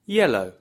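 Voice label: "yellow", English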